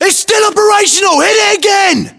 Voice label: voiceovers, US; english